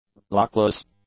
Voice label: "luckless", English; adjectives